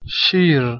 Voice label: "shir", Persian